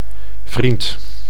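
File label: "vriend", Dutch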